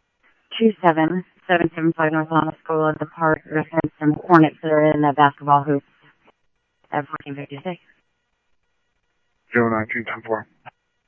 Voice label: Police, scanner audio trunk; H-Scottsdale